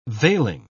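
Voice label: "veiling", British English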